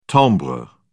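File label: "timbre", British English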